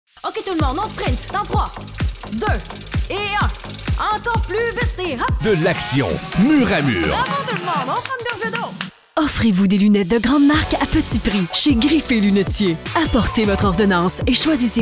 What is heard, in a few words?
F FR